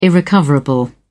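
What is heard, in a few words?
"irrecoverable", British English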